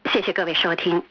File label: xiexie gewei shouting; Xie4-xie4 ge4-wei4 shou1-ting1; thanks everyone for listening; Chinese